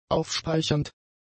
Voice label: "aufspeichernd", German; adjectives

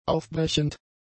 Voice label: "aufbrechend", German; adjectives

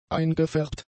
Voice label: "eingefärbt", German; adjectives

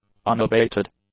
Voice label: "unabated", English; adjectives

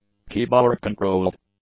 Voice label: "keyboard-controlled", English; adjectives

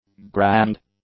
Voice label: "grand", english; adjectives

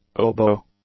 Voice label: "oboe", English; nouns

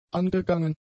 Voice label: "angegangen", German; adjectives

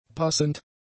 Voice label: "passend", German; adjectives

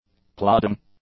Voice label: "plodding", english; adjectives

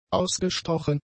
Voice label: "ausgestochen", German; adjectives

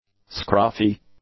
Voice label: "scruffy", English; adjectives

